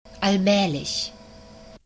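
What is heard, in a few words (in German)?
"allmaehlich", German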